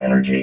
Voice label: "energy", robot vox